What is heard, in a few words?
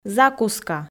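"закуска", Komi, female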